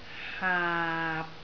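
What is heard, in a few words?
"haap6", Cantonese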